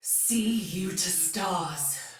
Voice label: See Ally Die, Soldier Female, Battle; Dialog; Barks; Sinecure